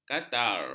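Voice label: "katar", Malay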